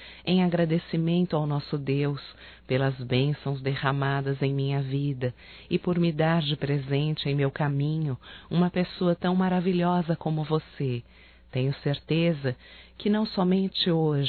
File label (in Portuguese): NATAL ANO NOVO SOGRA, fem; Telemensagens 2017